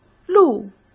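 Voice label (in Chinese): "路", Mandarin Chinese; chinese flashcards; hsk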